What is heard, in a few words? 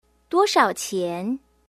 how much does it cost chinese